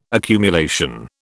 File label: "accumulation", British